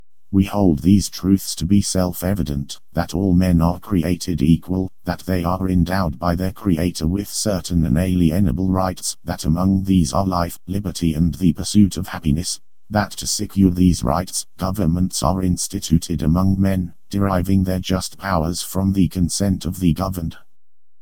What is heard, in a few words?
mimic voice; mycroft